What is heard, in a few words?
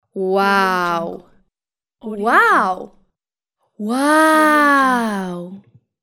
female voice wow